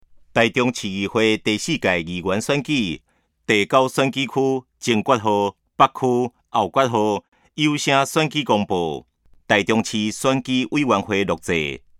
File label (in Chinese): 前言, 台語; 臺中市; 有聲公報; 市議員; 第09選區